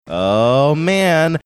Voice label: drawn out oh man

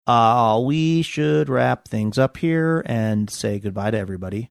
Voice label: funny; uhh we should wrap things up

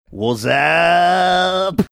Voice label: wassup funny

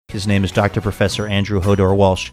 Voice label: quickly saying doctor professor

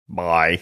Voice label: saying "bye" funny

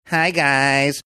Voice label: hi guys funny

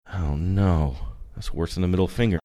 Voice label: quietly saying oh no its worse than middle finger

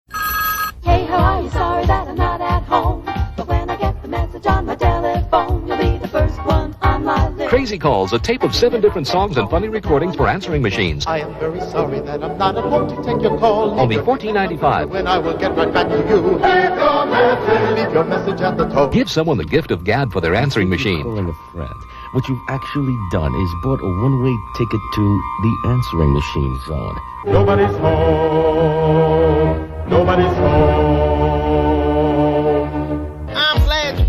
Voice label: commercial; crazy calls

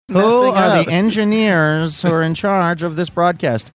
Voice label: funny; who are engineers in charge of this broadcast

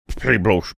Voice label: mumbling something gruff

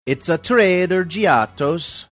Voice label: saying it's a trader faux italian accent; giotto's

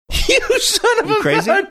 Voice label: laughing saying you son of a gun

